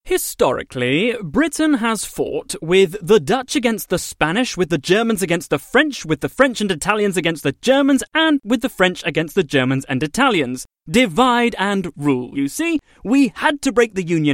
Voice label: English British video games